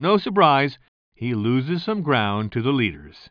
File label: commentator